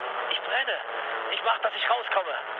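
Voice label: German on fire mood low, speech; War Thunder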